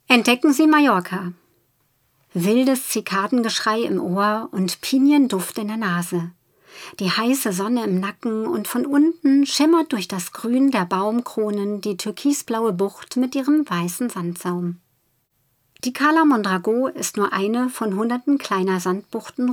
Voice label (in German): Dokumentation, German